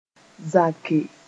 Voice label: "zake", moore

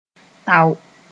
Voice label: "tao", moore

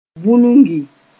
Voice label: "vũnugi", moore; deepen

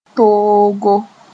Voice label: "toogo", moore

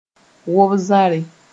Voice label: "wob-zãre", moore

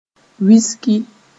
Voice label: "wiski", Moore

